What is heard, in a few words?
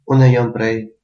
im from, albanian gheg